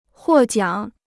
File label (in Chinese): "获奖", Chinese